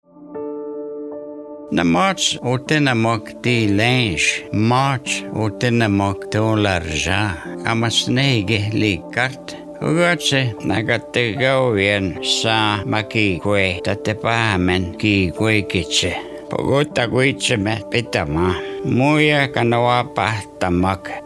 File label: Michif